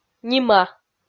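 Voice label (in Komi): "нима", Komi, female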